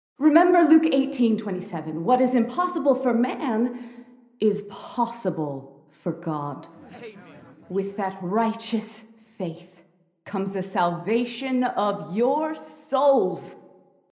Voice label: preacher voice; Life is Strange 2; gamesoundopedia